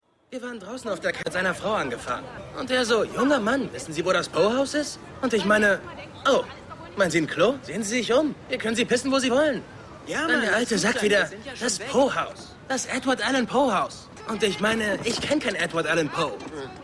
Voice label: Typ Vor Haus; The Wire

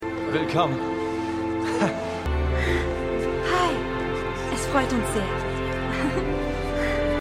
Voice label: Junger Mann Junge Frau; See

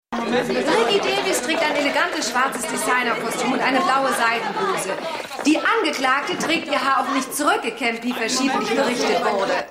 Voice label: Reporterin

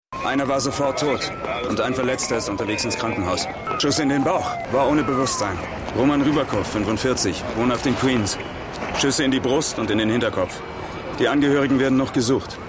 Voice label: Sergeant, Stimmproben

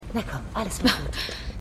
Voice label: Frau

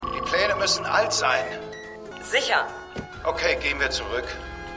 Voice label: Mann Frau Schutzanzug; The Flash

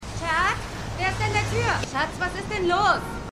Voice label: Frau

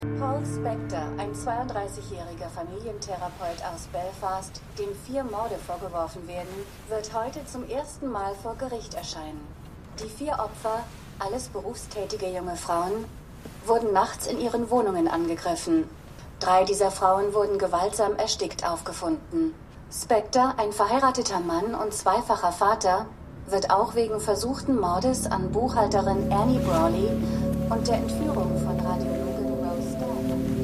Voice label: Nachrichtensprecherin; The Fall

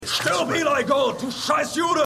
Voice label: Baertiger Mann; The Good Fight

